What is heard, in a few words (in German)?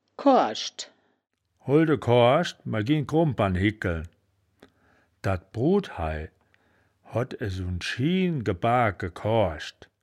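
"koarschd", wittlicher woerterbuch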